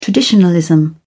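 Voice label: "traditionalism", British English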